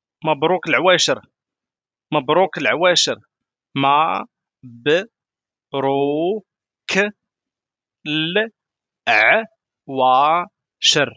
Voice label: how to say happy celebration in moroccan arabic how to wish happy eid morocco; moroccanarabiclanguage